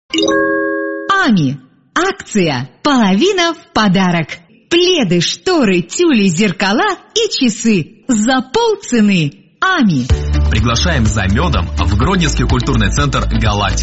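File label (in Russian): Reklama, advertisement, radio; Dushevnoe radio Belarus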